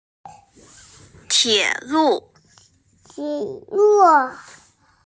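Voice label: "tie3lu4", Mandarin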